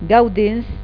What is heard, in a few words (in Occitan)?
"gaudenc", gasconha